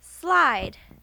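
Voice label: "slide", English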